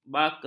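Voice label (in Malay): "baka", Malay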